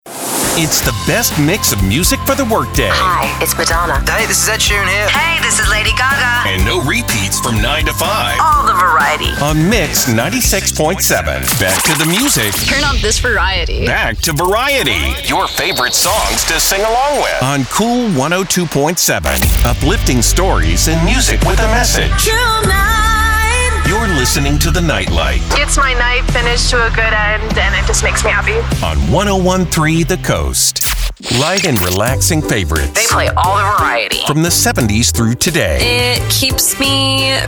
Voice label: AC Lite AC

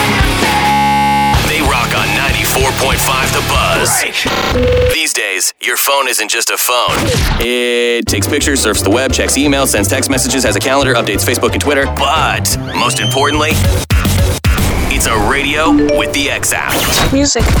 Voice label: Rock Imaging

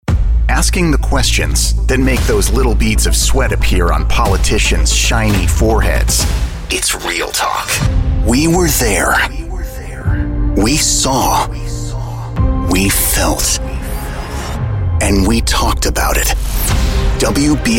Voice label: News

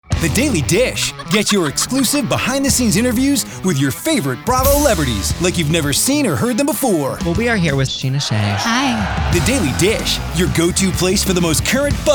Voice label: PROMO

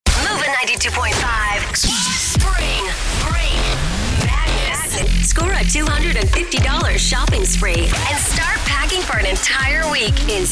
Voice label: Imaging

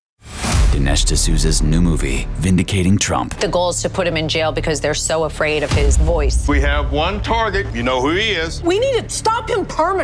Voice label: Trailer; Salem NOW

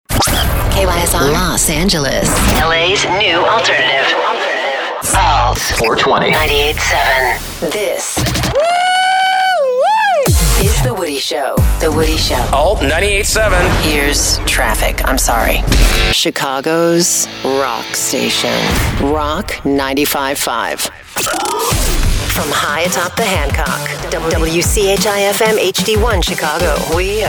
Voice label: Radio Imaging

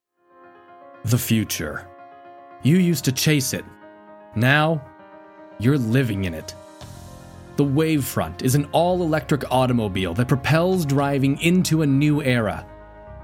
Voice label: Commercial

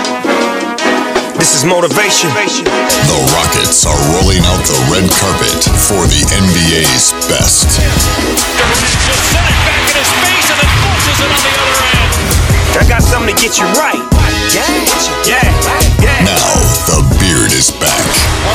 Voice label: Sports Imaging

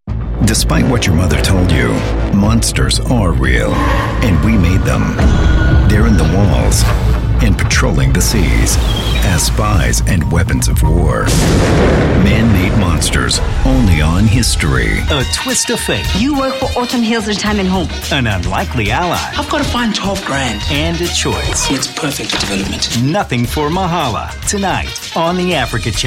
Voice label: Promo Male

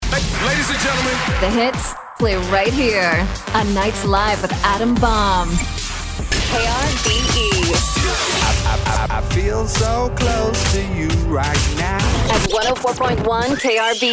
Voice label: Imaging CHR

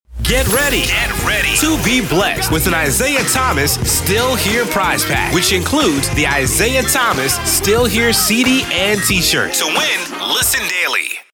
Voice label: Promo

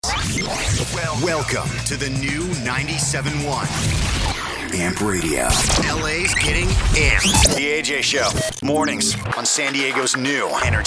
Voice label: Imaging

